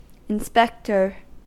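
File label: "inspector", US English; pronunciations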